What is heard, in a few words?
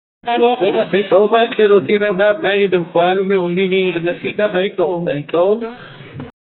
a person teaching a other, classroom